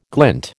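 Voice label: "glint", American English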